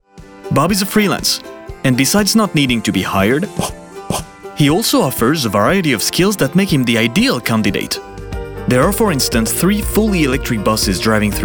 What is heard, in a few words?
Corporate Informative EN